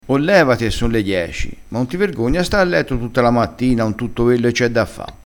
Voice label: "levassi", crespinese

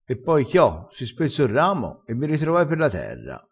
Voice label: "chio", crespinese

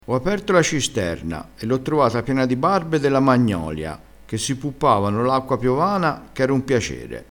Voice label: "barba", vocabolario dialettale crespinese

